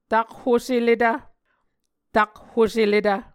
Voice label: "takhoseletv", Muscogee